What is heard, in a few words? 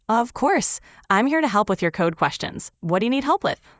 one speaker